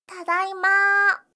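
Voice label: "tadaima", Japanese; gaisyutsu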